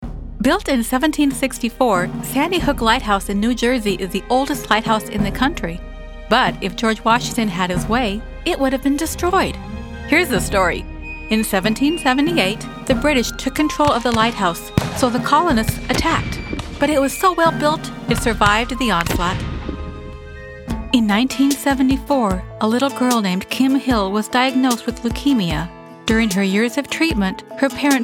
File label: Narration Voiceover